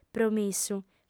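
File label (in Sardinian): "promissu", female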